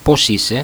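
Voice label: "posise", Greek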